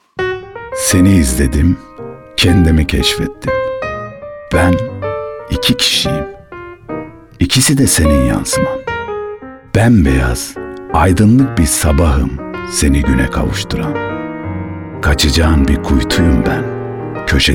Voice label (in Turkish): sicak samimi